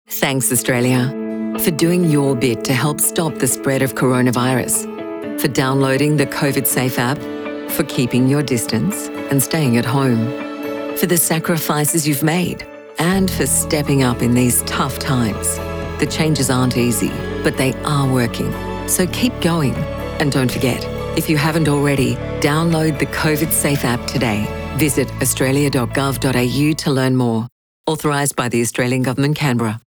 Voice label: radio ad; Coronavirus COVID-19 Thanks Australia